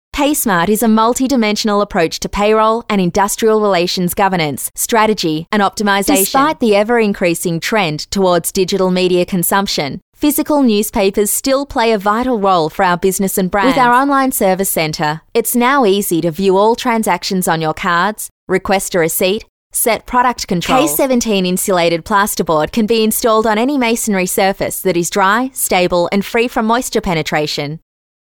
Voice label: Corporate